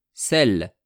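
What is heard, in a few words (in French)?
"celles", French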